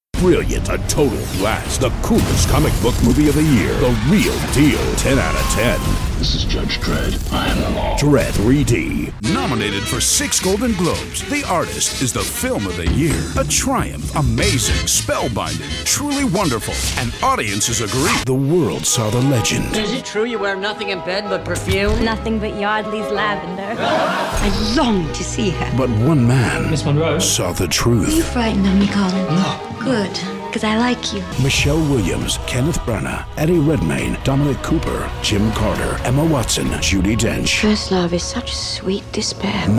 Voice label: trailers